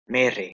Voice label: "mere", Anglo Saxon